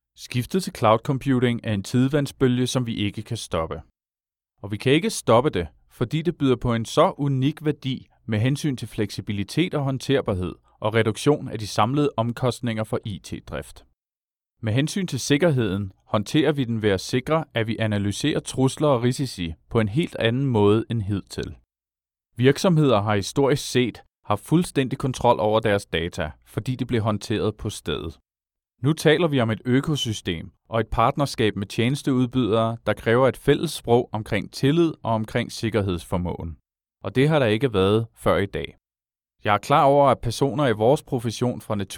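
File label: Danish, e-learning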